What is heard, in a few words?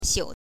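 "xiu", Mandarin Chinese, neutral tone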